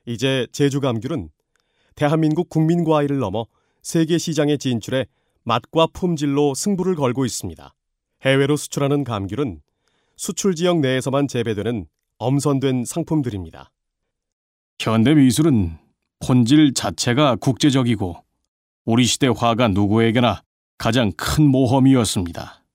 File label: Korean Male